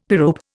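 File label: "pyrope", Indian English; Dictionary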